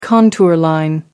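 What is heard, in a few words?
"contour line", British English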